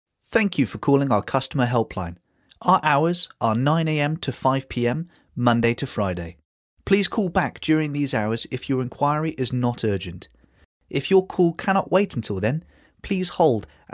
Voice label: english british male